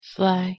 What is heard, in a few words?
pronunciation en "fly"